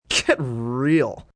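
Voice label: Get Real male, Attitude, male: 'Get real', (human voice speaking); Talking; VoiceBox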